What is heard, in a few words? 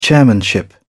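"chairmanship", British English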